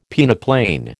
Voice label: "peneplain", American